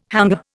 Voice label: "hangar", Indian

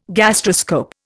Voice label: "gastroscope", Indian

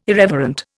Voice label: "irreverent", Indian

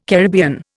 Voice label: "Caribbean", Indian

